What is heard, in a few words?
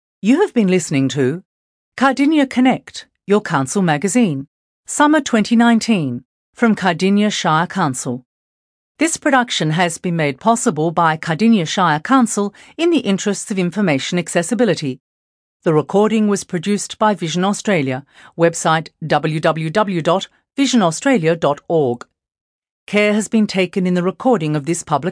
closing announcement